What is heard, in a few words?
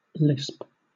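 "lisp", British English; pronunciations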